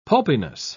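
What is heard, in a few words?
"pulpiness", British English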